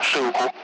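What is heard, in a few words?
Speech Sound Russian Common Allied Flight; AirDefence; Zeus (ZU-23-4); DCS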